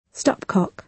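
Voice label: "stopcock", British English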